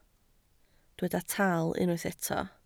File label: "taal", Welsh